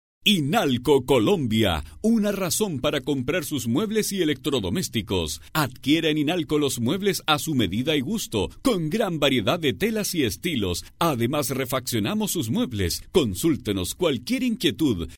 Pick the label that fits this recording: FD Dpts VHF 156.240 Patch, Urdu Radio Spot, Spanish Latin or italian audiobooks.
Spanish Latin